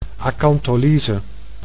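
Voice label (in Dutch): "acontholyse", Dutch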